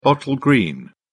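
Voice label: "bottle green", British English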